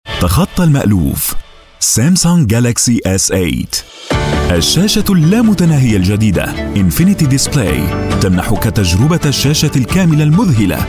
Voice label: arabic voice over male narrator